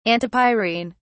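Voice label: "antipyrine", British English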